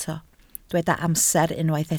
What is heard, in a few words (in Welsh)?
"amser", Welsh